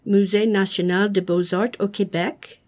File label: "musee national quebec", French